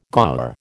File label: "gaur", American